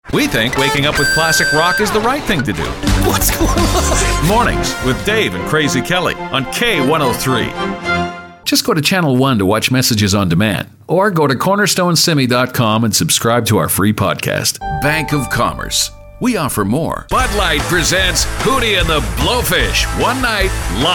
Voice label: commercial voice